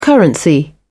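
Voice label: "currency", British English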